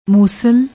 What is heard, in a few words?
"moosal", Punjabi